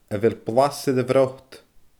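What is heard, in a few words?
"a bheil blas air a bhrot", Scottish Gaelic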